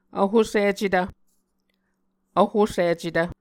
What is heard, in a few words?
"vhosicetv", Muscogee